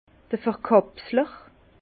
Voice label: "verkapsler", Bas Rhin; vin; capsuleuse

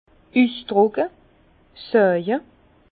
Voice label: "usdrucke", Bas Rhin; vin; exprimer

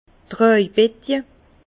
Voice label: "trajbetje", Bas Rhin; hotte; vin

